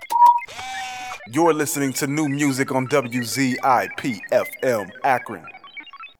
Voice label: sweeper new music, voice